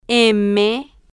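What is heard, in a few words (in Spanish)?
"m", spanish, female, slow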